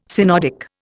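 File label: "synodic", Indian English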